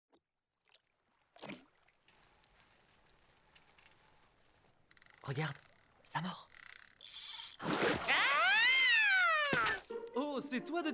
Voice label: Dessin animé; Ploddy

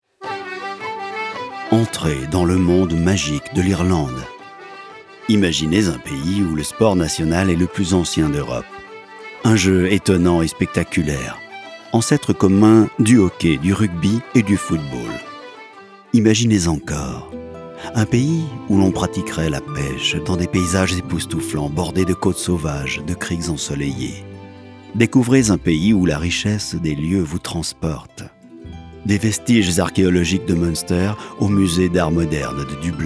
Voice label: pub radio

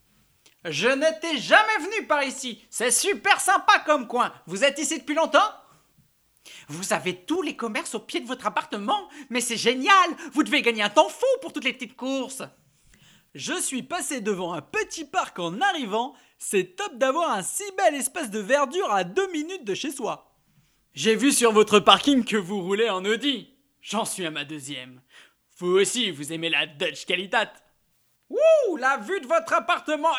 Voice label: Voix Off

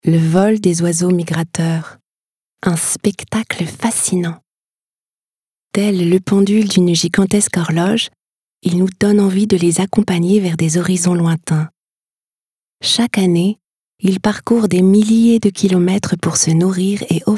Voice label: COMMENTAIRE

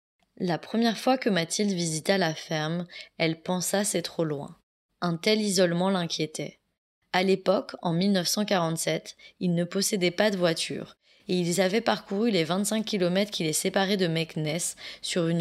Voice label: Livre audio

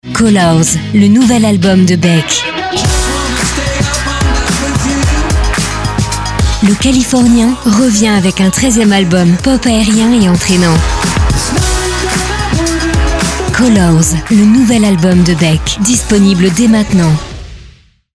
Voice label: Promo

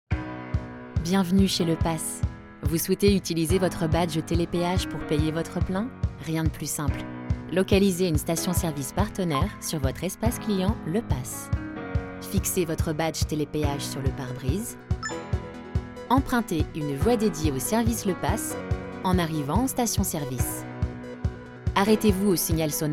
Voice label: voix off; Le Pass

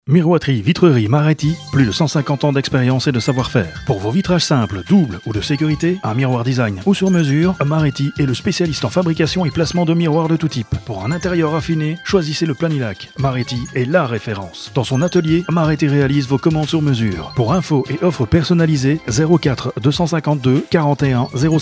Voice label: Spot pub

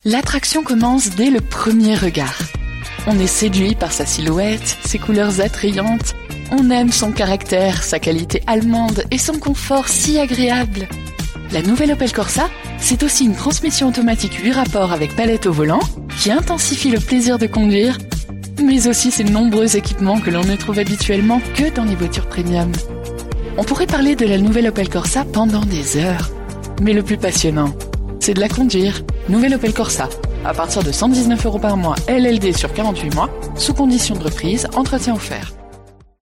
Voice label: Promo; Opel Corsa

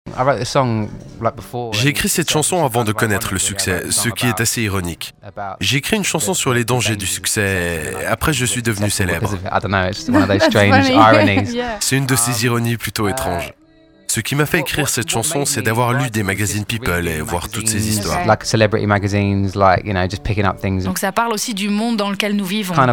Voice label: Parlé Doublage Interview

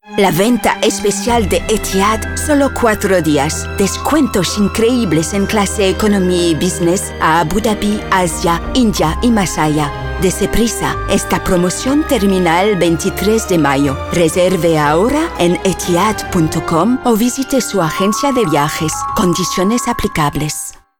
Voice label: espagnol; Etihad

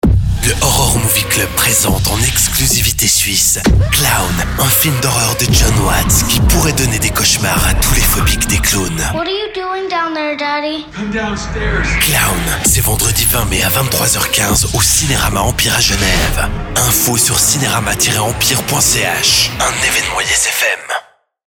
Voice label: Pub Horreur Horror Movie; Horror Movie Club

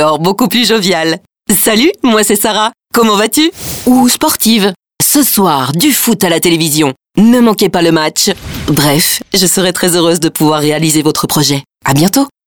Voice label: Présentation voix off

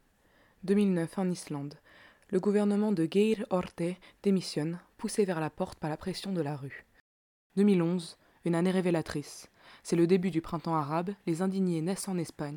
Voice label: Voix off Documentaire

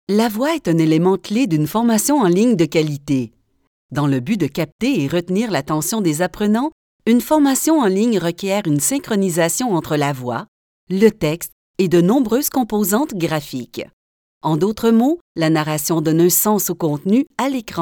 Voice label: Narratrice

